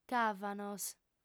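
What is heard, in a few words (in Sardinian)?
"càvanos", female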